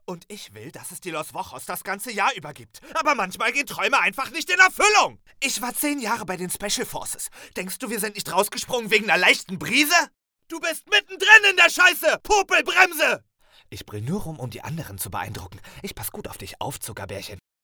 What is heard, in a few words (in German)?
Realsynchron dynamisch bruellen